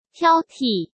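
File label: "挑剔", Mandarin Chinese; chinese flashcards; hsk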